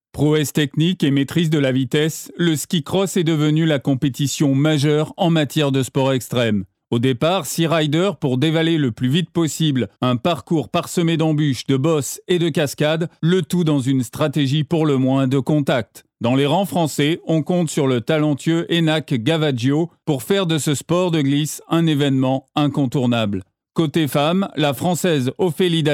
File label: French, Male